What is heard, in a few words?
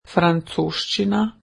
"French", Slovene